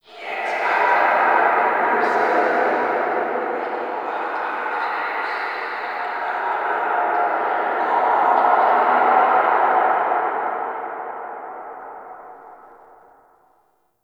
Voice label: Prayer Whisper; Lab; Warframe; Tau; Protoframe Room; Ambience